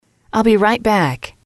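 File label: ill be right back, English, female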